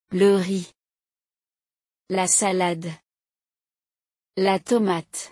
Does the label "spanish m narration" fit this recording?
No